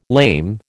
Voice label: "lame", American